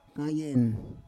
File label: "kayen", Roon